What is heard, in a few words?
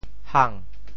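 "hang2", Teochew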